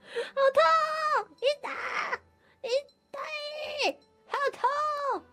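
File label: "itai", Japanese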